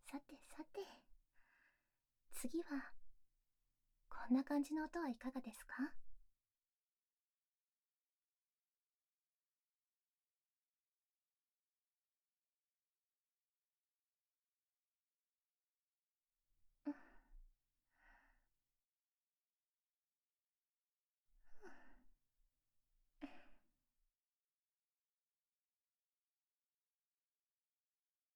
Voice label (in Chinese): 水声与入浴角色扮演的ASMR催眠(効果音なし)